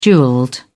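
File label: "jewelled", British English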